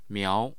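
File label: "miao2", Mandarin Chinese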